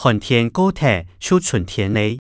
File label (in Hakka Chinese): 寒天過忒就春天哩, 客語